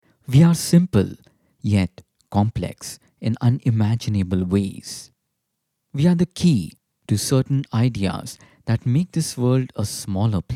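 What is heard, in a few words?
Hindi, English, male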